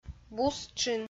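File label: "бус-тшын", Komi, female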